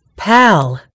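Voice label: "pal", British English; gcompris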